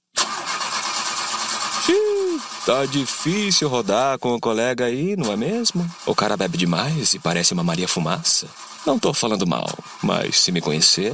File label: portuguese brazilian